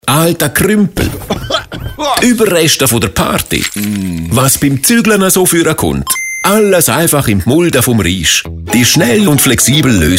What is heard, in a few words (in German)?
Radiospot; radio fm1